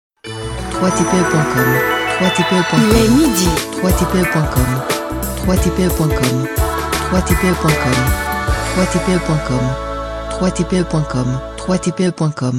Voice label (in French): top horaire voix femme midi; top horaire voix femme avec une annonce musicale heure par heure; pack top horaire voix femme; pack jingle